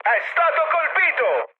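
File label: Italian aircraft destroyed mood high, speech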